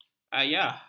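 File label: "ayah", Malay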